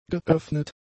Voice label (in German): "geöffnet", German; adjectives